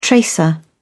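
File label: "tracer", British English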